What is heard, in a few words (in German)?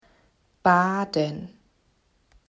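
"baden", German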